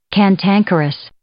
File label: "cantankerous", English